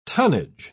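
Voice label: "tunnage", British English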